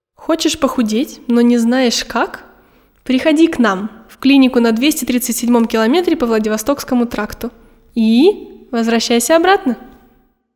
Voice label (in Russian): Diktori studii Reclama